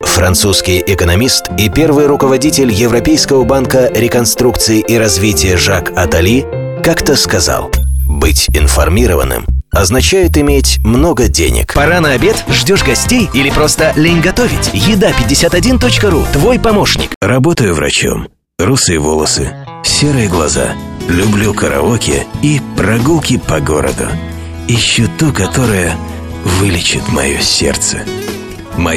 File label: Rus